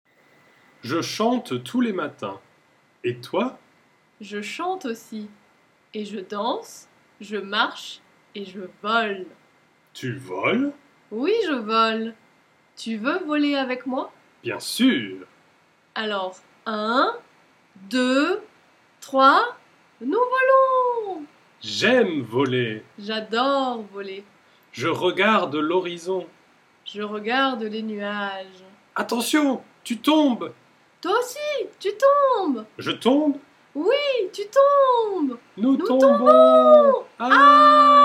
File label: dialogue medley, French; er verbs